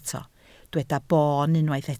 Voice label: "boon", Welsh